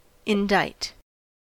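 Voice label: "indict", US English; pronunciations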